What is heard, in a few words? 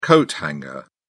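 "coat hanger", British English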